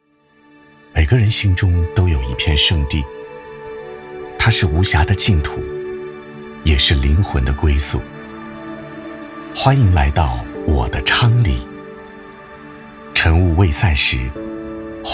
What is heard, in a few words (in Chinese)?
男 轻述